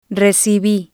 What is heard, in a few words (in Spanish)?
"recibi", spanish